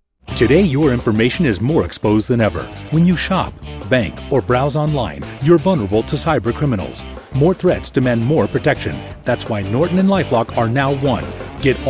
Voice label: Commercial